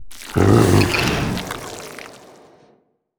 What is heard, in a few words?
portrait synthetic; Stellaris; machineage